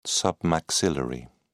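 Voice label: "submaxillary", British English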